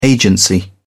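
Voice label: "agency", British English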